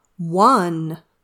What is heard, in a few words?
"one", English